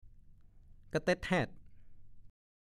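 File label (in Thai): "กะเต็ดแฮ็ด" ภาษาโคราช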